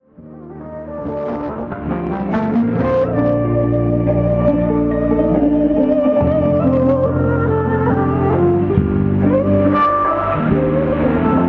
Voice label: 15270 kHz tedesco; Voice of Turkey; Degen DE 1103; filo 5m indoor; St. Ursen

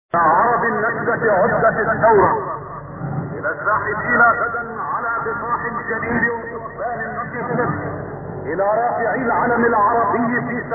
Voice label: arabo; Radio Damasco

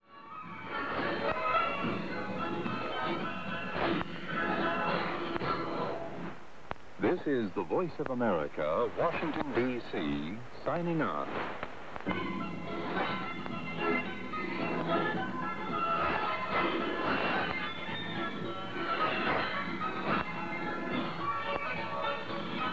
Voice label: VOA, 7265 kHz, francese; Sao Tome e Principe relay; FT2000; dipolo

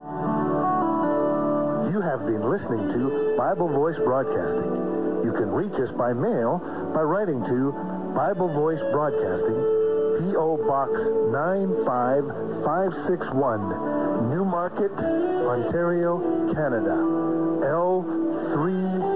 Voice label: 9810 kHz inglese; Bible Voice Broadcasting; FT2000; dipolo

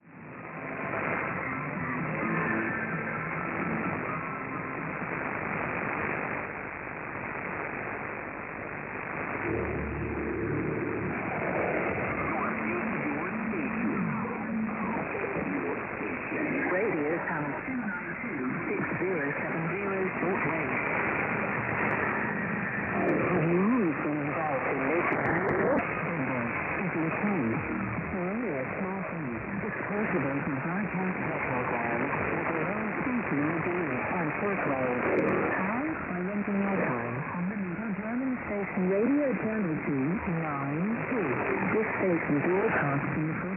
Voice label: 6070 kHz inglese; Radio Channel 292; Perseus; Schwarzsee

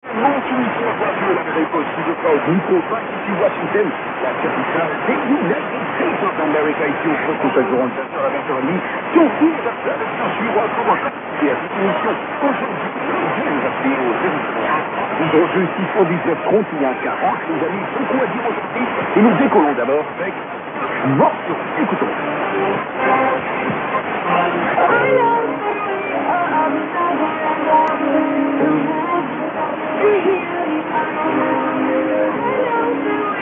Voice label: 11840 francese; VOA Botswana

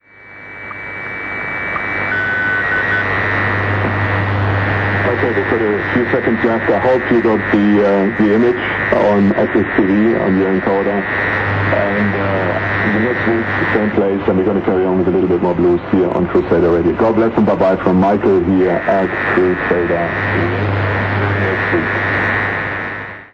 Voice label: 9670 kHz inglese; Crusader Radio; Degen DE 1103; filo 5m indoor St. Ursen

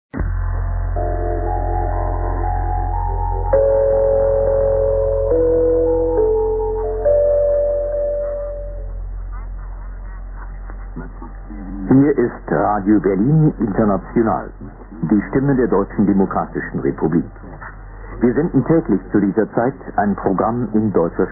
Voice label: tedesco; Radio Berlin International

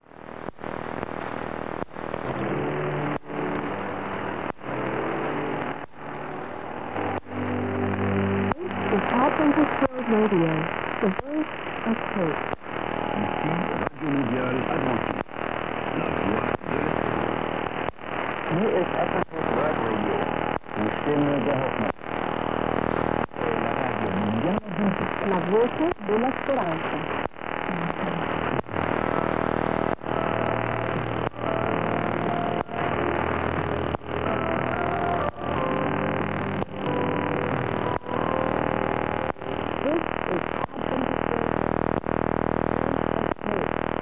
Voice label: 15515 kHz inglese; AWR; FT2000; dipolo

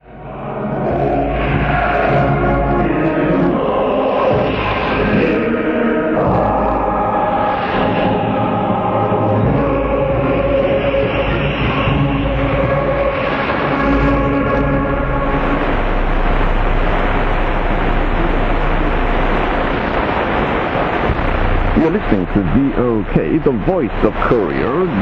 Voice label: 12015 kHz, inglese; Voice of Korea; Degen DE 1103; filo 5m indoor; St.Ursen

